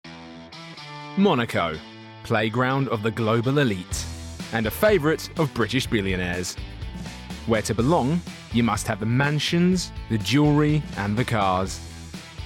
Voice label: documentary